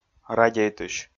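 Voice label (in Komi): "радейтысь", Komi, male; audio dict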